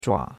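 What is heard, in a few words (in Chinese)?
"zhua3" Chinese; Cours-de-Chinois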